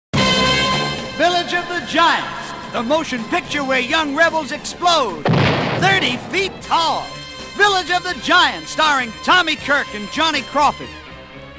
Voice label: radio ad